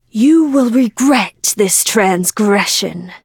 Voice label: touch, en; cookie run

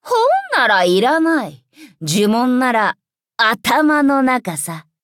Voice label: upgrade, ja; Cookie Run

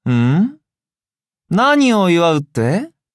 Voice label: levelup, ja; Cookie Run

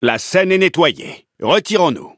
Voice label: victory, fr; Cookie Run

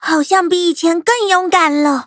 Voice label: levelup zh-hant; Cookie Run

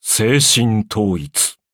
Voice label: upgrade, ja; cookie run

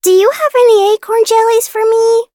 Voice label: levelup en; cookie run

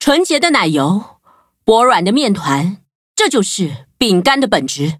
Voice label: loading, zh-hant; Cookie Run

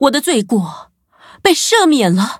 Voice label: level up, zh-hant; cookie run

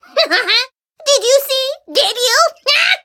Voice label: level up, en; cookie run

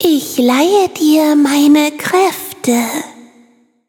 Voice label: loading, de; Cookie Run

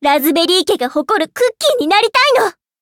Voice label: levelup ja; cookie run

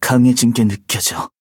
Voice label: kohlrabi levelup, ko

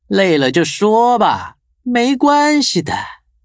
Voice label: loading, zh-hant; cookierunwiki

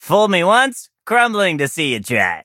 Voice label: touch, en; cookie run

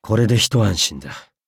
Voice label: upgrade, ja; Cookie Run

